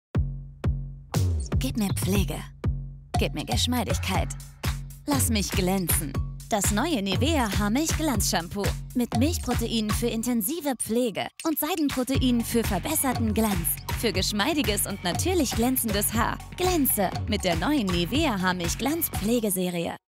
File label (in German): TV-Spot; NIVEA Haarmilch Natürlicher Glanz